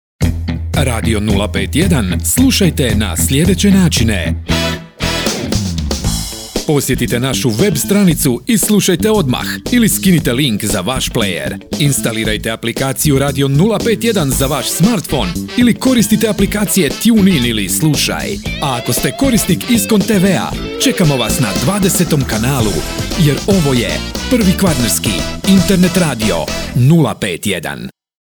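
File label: Croatian Commercial; GoLocalise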